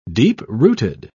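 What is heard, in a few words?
"deep-rooted", British English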